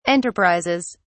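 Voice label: "enterprises", English, British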